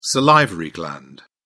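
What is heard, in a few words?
"salivary gland", British English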